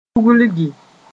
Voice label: "tuglgi", moore

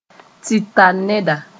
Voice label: "tɩta-neda", moore

